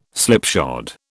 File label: "slipshod", British